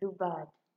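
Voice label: "do bad", Nigerian Pidgin, female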